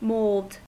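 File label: "mold", US English; pronunciations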